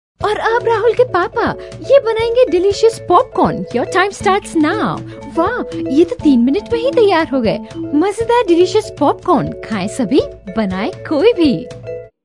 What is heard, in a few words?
sample hindi, female voice